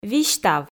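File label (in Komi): "висьтав", Komi, female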